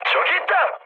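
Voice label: Korean enemy detected mood med, speech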